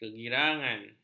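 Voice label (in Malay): "kegirangan", Malay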